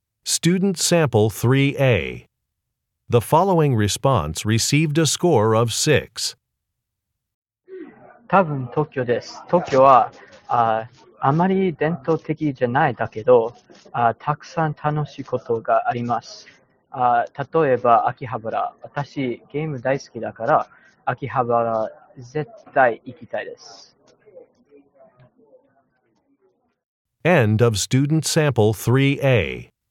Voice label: japanese conversation